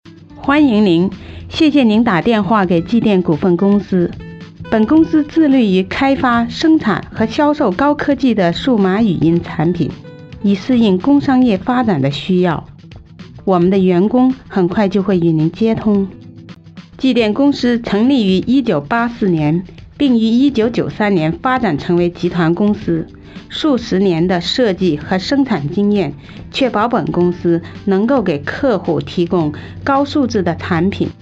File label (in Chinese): Company cn